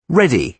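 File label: "ready", British English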